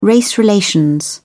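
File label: "race relations", English, uk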